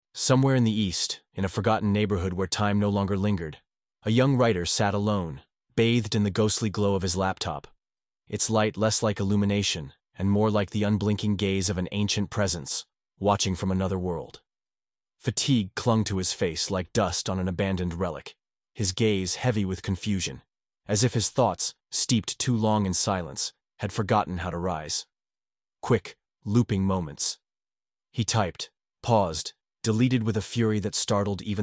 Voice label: comics narration; egywood